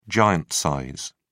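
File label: "giant size", British English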